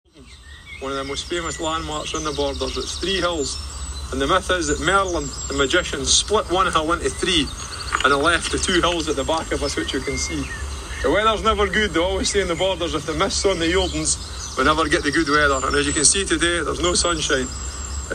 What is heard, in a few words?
scottish accent